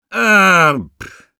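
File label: negative vocalization, Russian; Sniper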